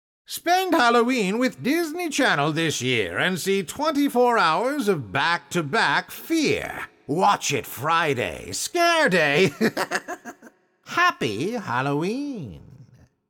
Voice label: TV promo scary fright kids